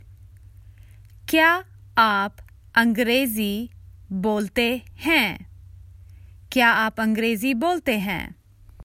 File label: Do you speak English, Common Hindi Phrases for travel